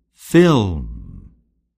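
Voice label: "film", English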